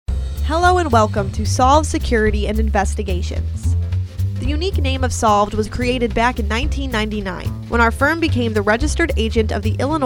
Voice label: Voiceover With Walk that Bass